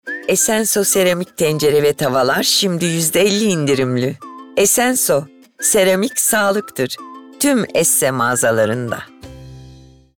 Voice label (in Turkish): Neseli